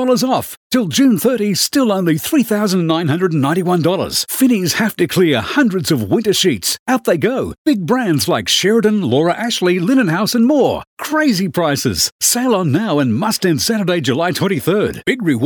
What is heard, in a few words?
Hard Sell